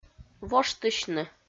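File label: "воштысьны", Komi, female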